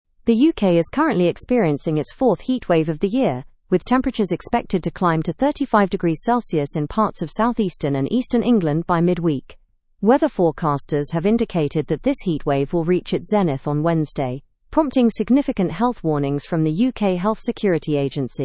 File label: learn english through news advanced; fourth heatwave of 2026 raises health concerns in uk